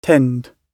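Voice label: "tend", British English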